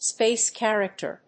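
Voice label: "space character", English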